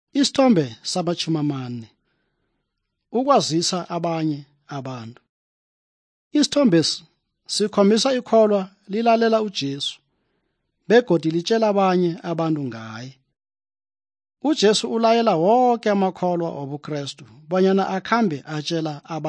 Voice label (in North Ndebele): good news ndebele southern, drama